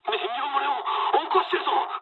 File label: tank damaged mood med, speech jp; War Thunder